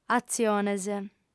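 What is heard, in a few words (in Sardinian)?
"atziones", female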